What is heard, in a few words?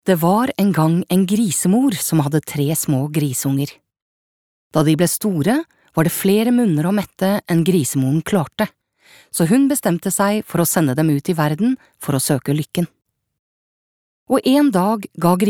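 no childrensbook, Norwegian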